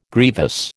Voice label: "grievous", American